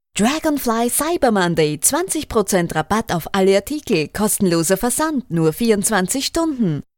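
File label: Animated ad German Female Voiceover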